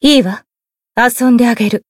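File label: encount, Japanese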